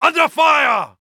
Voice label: uk Neutral

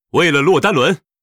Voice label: warcry, zh; 光明阿尔萨斯（魔兽争霸3）; Arthas

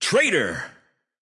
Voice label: Trader intense

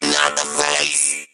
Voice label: hurt vo; mech colt

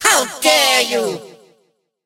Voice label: hurt vo; mortis

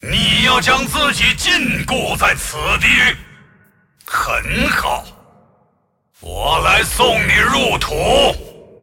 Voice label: move, zh; Aatrox

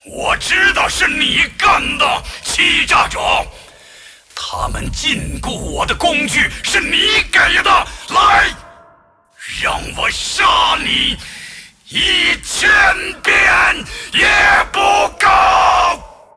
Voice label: move, zh; Aatrox

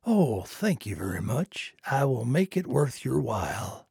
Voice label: trader male like quest accepted

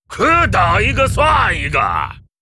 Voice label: earthy yes attack, zh; Pandaren brewmaster

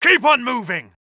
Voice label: uk Neutral

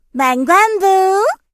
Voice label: start vo, kr; melodie

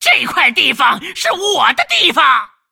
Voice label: attack, zh

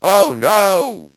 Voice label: 8bit hurt vo

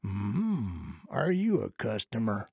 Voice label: trader male neutral greeting

